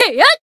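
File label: attack, Japanese; kikyou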